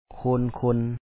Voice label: ໂຄນຄົນ kho2on kho2n, Lao